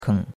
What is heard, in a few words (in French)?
"keng3", chinois; Cours de Chinois